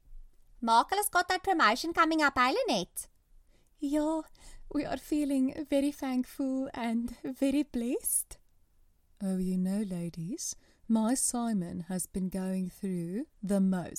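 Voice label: ENSA General, South African English; GoLocalise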